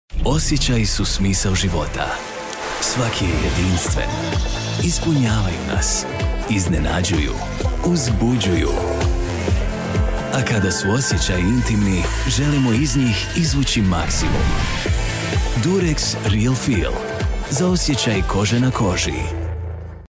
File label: Sensual, croatian